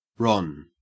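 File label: "ron", Australian English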